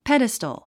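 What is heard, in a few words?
"pedestal", English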